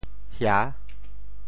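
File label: "hia6", Teochew; 潮州; 砖厚瓦薄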